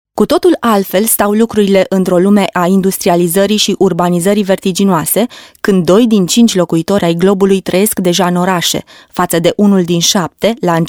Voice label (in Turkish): Romence Kadin, romence seslendirme; kadin sesler